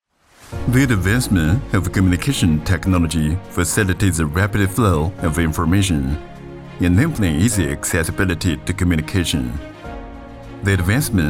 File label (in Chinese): 宣传片 沉稳大气, 男 英文